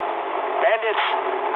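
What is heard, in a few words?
English enemy detected mood low; speech; War Thunder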